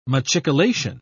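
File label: "machicolation", British English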